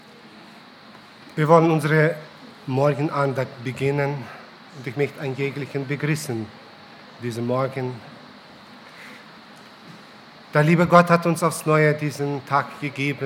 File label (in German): Ansage